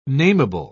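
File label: "nameable", British English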